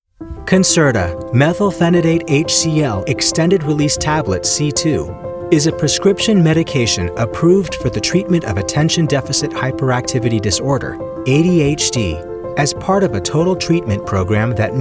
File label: Medical Narration